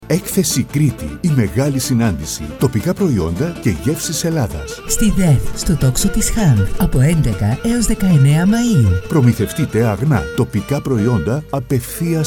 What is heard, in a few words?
MALE VOICE HYPER